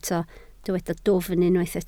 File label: "dwfn", Welsh